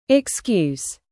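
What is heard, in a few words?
"present", noun, British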